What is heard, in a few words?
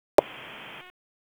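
Fire Department HQ Dispatch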